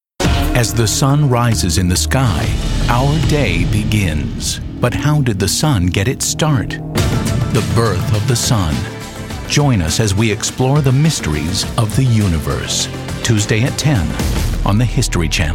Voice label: promo, men